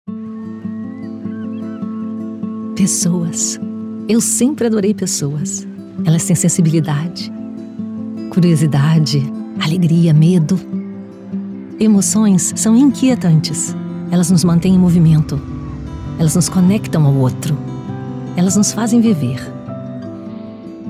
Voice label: br corporate, portugisisk-brasil, female